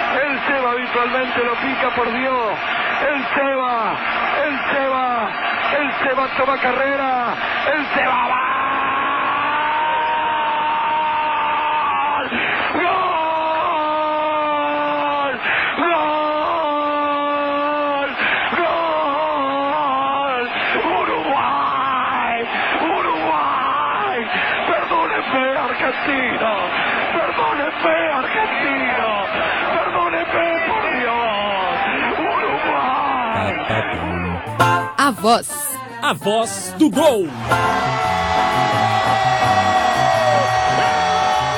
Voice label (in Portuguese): LOCUTOR URUGUAIO; JOGO URUGUAI E GANA